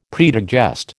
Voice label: "predigest", American